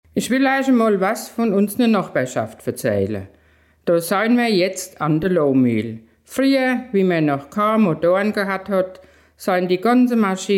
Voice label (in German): Mundart; Lohmuehle